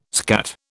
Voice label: "skat", British

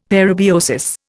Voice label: "parabiosis", Indian; Dictionary

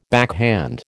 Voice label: "backhand", American

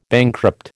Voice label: "bankrupt", American

